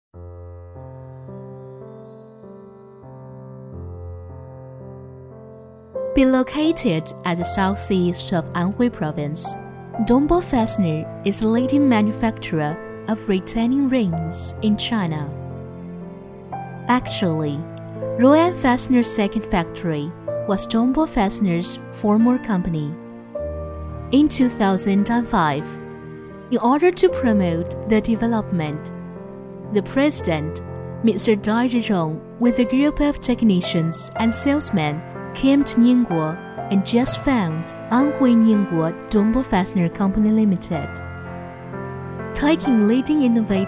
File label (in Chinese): 英女 英语宣传 沉稳企业